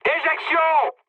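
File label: French bailout mood high; War Thunder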